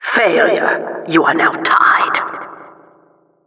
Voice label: Announcer second stage outcome